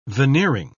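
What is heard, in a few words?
"veneering", British English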